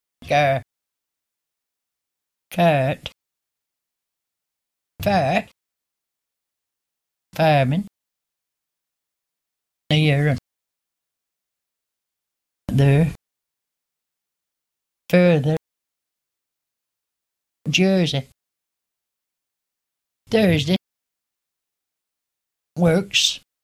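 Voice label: Rhotic: car cart far farming here there furthe(r) Jersey Thursday works, Chorley district; rhoticity; accents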